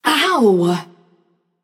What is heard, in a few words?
ouch passion; chaac